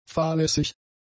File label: "fahrlässig", German; adjectives